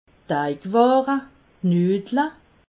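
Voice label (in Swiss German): "deigware", Alsatian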